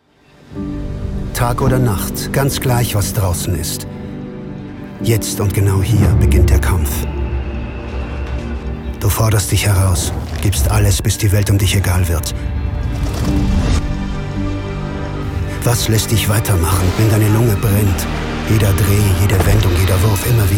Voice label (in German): Fremdtake Cool